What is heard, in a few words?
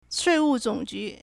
"Shuìwù Zǒngjú", Chinese; 税务总局; 稅務總局; proper noun; State Administration of Taxation